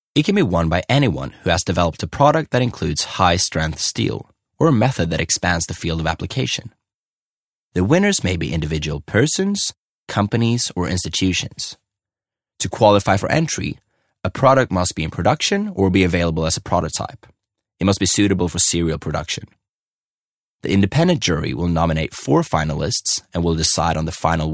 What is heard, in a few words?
Voiceover Swedish English